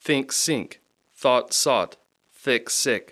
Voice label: think sink thought sought thick sick, Rapid Sound Practice; Speech Pro